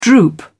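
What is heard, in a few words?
"droop", British English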